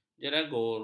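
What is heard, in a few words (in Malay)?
"jeragur", Malay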